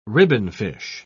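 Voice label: "ribbonfish", British English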